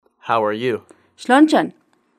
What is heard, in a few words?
iraqi, How are you (plural)(feminine) shlon-nitchan شلونجن